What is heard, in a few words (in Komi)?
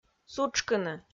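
"сутшкыны", Komi, female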